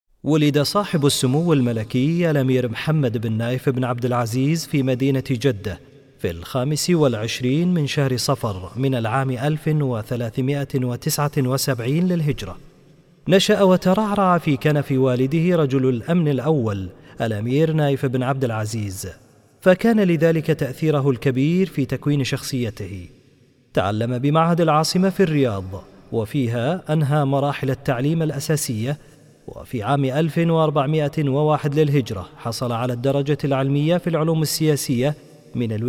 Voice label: Arabic